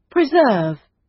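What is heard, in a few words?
"preserve" British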